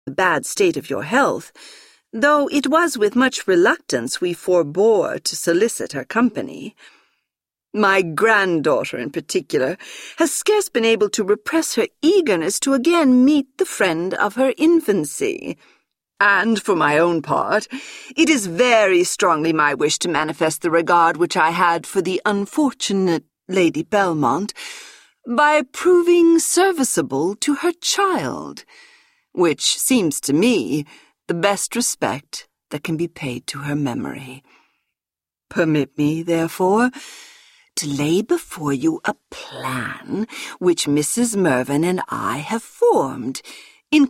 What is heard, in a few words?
Audiobook sample; Evelina